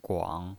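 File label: "guang", Mandarin Chinese, third tone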